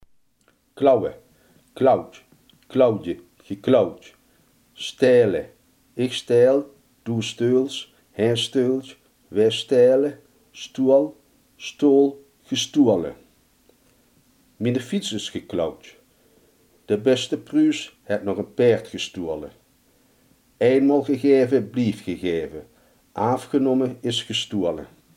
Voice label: "stelen", Limburgs

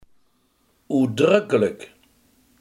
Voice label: "uitdrukkelijk", limburgse

